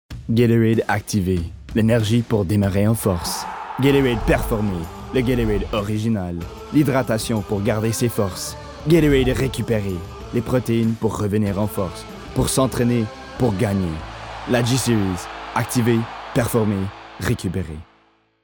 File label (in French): Publicite; Gatorade; Publicité FR